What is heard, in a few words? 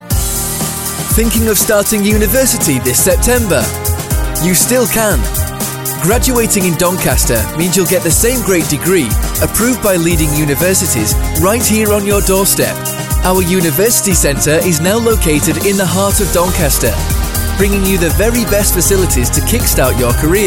voiceover radio commercial; doncaster university centre